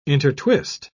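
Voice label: "intertwist", British English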